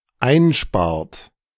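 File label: "einspart", German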